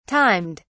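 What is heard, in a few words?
"timed", British English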